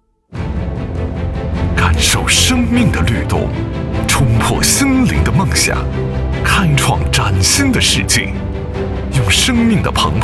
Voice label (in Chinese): 国语男 企业宣传; 三诺